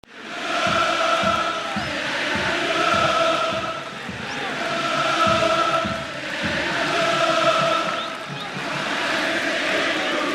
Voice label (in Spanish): futbol publicidad inicio encuentro; santa fe de la vera cruz; union